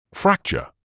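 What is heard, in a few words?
"fracture", British English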